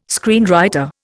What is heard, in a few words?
"screenwriter", Indian